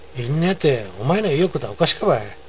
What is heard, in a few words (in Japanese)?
有田弁; いんにゃて、おまいの言いよっこたぁおかしかばぃ。; いいや、お前の言っていることはおかしいぞ。; （否定の言葉）、いや